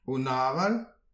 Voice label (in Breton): "un aval", distagadur; petit precis de prononciation